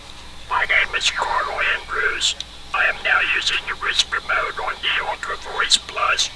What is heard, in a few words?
whisper